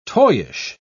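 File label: "toyish", British English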